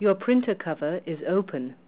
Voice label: printer open, english